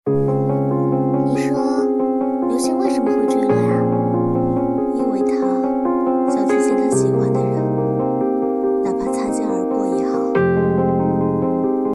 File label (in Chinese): 流星为什么会坠落啊, 温柔成熟女声